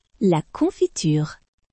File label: jam in french